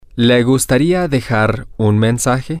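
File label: would You Like To Leave A Message, spanish, male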